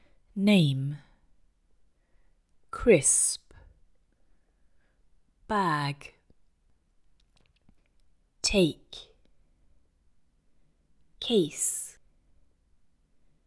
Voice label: Italian, British accent; English Pronunciation Errors made by Italian Speakers

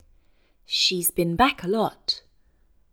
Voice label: Russian

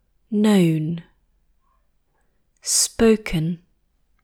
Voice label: French, British accent; English Pronunciation Errors made by French Speakers

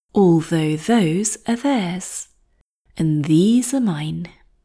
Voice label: Mandarin, British accent; English Pronunciation Errors made by Mandarin Speakers

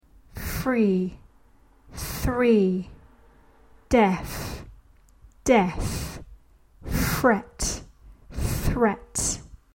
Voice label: minimal pairs, British accent; Pronouncing ‘TH’ in English

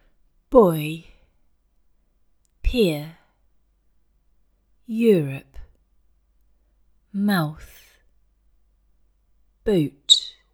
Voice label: Russian; English Pronunciation Errors made by Russian Speakers

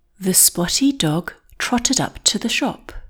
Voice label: Mandarin, British accent; English Pronunciation Errors made by Mandarin Speakers

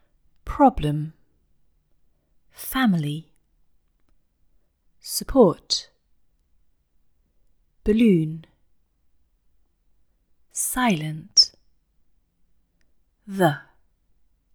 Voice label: Russian, British accent; English Pronunciation Errors made by Russian Speakers

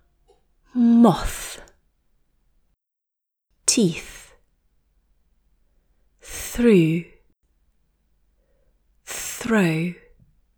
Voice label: French, British accent; English Pronunciation Errors made by French Speakers

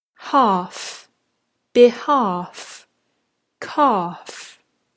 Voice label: half behalf calf, British accent; Silent Letters in English

